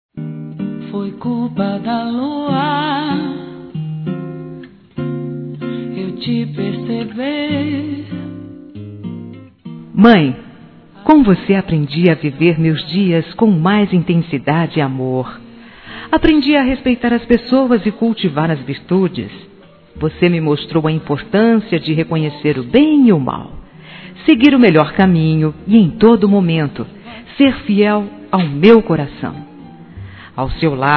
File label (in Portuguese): Dia mulher para mãe fem.; Telemensagens 2017